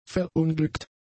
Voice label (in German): "verunglückt", German; adjectives